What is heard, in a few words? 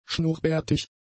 "schnurrbärtig", German; adjectives